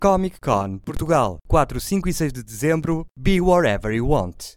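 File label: Portuguese commercial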